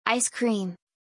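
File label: "ice cream", English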